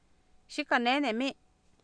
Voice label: xikah nEenemI, Oapan, female; Oapan minimal pairs 2000

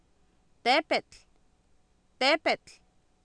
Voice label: "teepetl" Nahuatl, Oapan, female; Oapan minimal pairs 2000

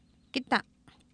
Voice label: "kita", Oapan, female; Oapan minimal pairs 1998

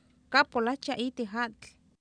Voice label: kAapolAktia Itik Aatl, Oapan minimal pairs female; Oapan minimal pairs 1998

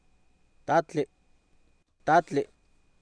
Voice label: "taatli", Oapan, male; Oapan minimal pairs 2000

